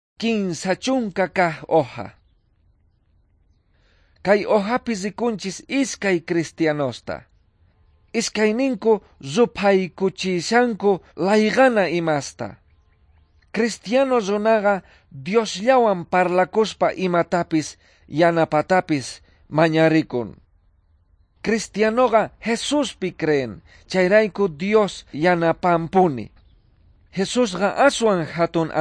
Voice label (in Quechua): quechua bolivian cochabamba; good news